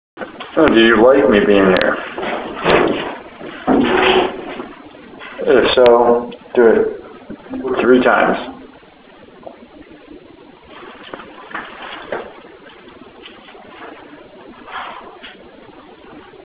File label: just go three voices, EVP; Homer Mill 2010; Lower Michigan Parnormal Society; 3 Voices just go